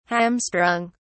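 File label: "hamstrung", British English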